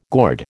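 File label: "gourd", American English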